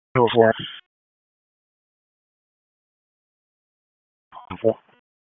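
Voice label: Sheriff's Office Dispatch 1, p25; wake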